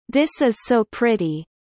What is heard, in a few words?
low; VR classroom Test